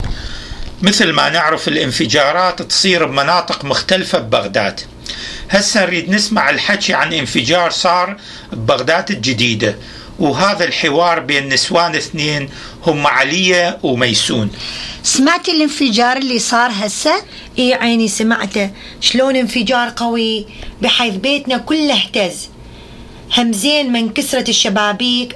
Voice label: Dialogue about explosion in Baghdad jadeeda, Iraqi Dialect